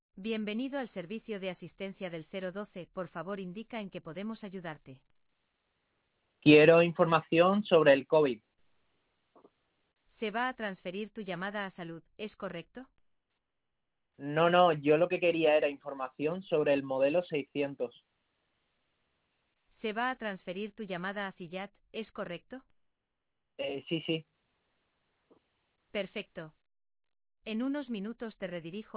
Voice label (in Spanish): Grabacion Ejemplo; Sandetel